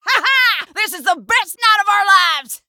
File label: Rowdy Partner Human Emote Play